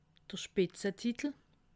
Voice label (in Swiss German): "spitzetitel", alsace